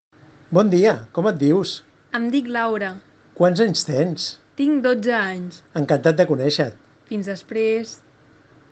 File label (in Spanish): Dialogo catalan